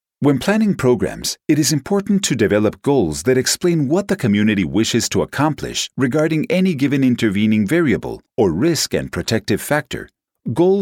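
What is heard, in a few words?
eLearning English, friendly, natural, warm, authoritative; Narration, eLearning, International Voiceover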